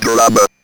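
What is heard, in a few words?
ROBVOX, robot voice; E-Lab; X Static Goldmine 1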